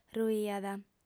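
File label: "ruiat", female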